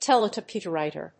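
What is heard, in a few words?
"teletypewriter", English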